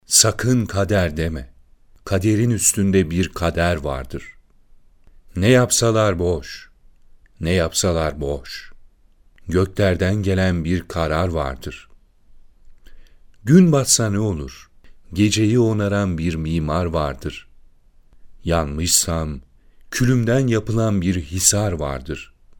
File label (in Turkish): MAKALE TANITIM METNİ SESLENDİRMESİ